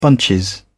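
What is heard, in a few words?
"bunch", British English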